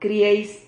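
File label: "crieis", Spanish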